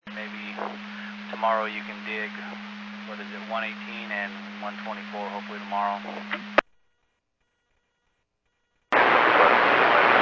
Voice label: Medevac, scanner; AirEvac PhxT